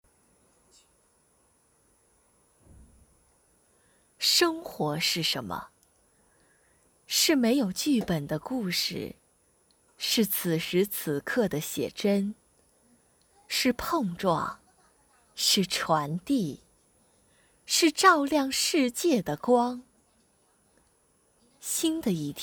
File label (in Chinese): 广告 试音